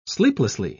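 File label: "sleeplessly", British English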